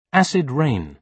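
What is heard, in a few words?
"acid rain", British English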